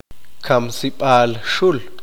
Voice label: "Rastro donde se mata animales", Q'eqchi'